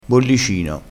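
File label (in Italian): "bollicino", dialettale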